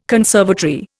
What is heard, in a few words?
"conservatory", Indian